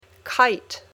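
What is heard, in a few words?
"kite", English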